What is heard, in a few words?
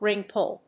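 "ring-pull", English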